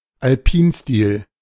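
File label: "Alpinstil", German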